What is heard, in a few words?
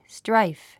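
"strife", English word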